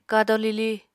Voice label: "kado-lili", Apatani

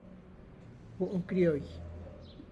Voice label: "crillo" bulls, Tlacochahuaya Zapotec; gu'n krioy; (Spanish) toros crillos

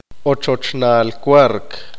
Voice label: "Posada hospedaje", Q'eqchi'

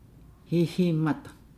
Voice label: "hiihii mada", Nukuoro; eyelash

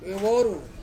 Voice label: "veworu" new, Ansus

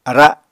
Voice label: "ara", Apatani

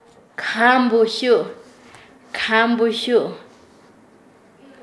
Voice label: Nasa Yuwe; vara larga; paez

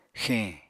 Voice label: "xeñ", Apatani

